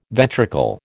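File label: "ventricle", American English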